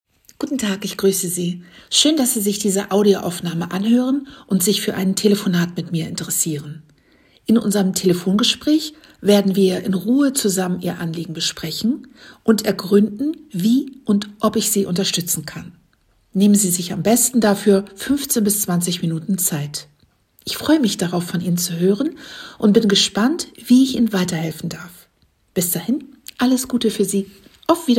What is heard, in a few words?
Mailbox; Modern Life Seminars